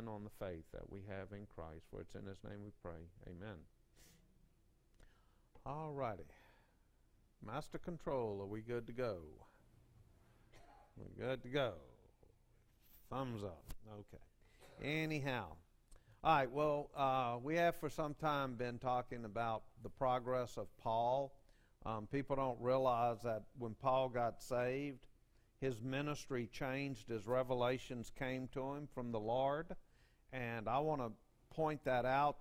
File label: Preaching